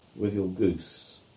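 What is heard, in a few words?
"Withielgoose", English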